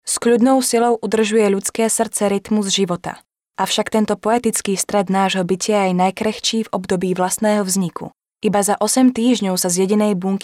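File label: Female Slovak documentary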